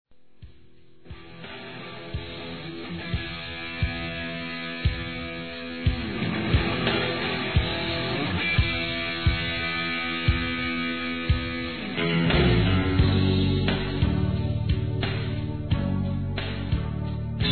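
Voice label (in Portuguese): RELACION PROIB, masculino; Telemensagens; Telemensagens 2017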